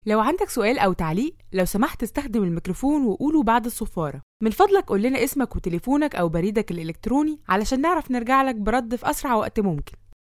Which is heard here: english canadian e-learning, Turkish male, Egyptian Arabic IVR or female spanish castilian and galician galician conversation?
Egyptian Arabic IVR